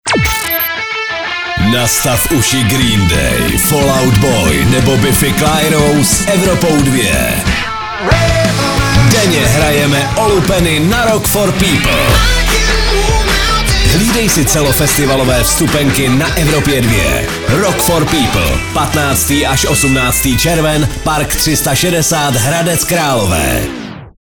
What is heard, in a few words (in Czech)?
liner soutez; evropa2; rock for people